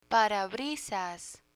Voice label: "parabrisas", Spanish; picture dictionary